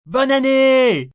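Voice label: New Year French M, male, French; Occasion, event; Holiday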